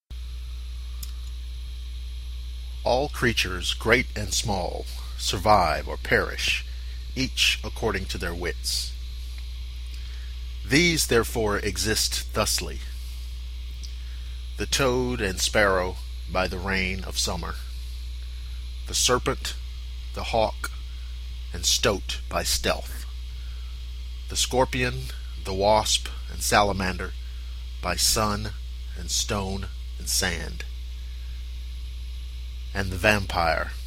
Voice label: Poetry Reading, voice acting